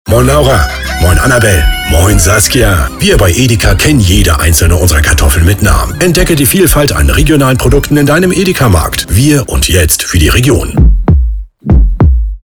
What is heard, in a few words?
german promos, German voice actor